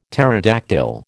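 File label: "pterodactyl", American English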